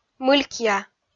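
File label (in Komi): "мылькъя", Komi, female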